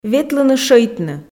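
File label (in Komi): "ветлыны-шӧйтны", Komi, female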